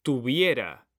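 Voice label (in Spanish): "tuviera", Spanish; mekanta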